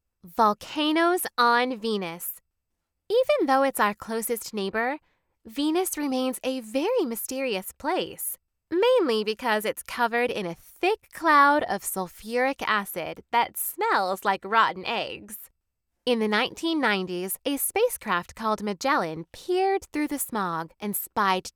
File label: Children Nonfiction